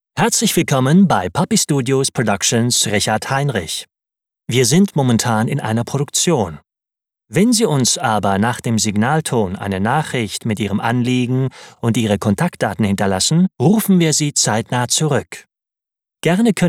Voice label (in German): IVR